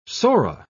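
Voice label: "psora", British English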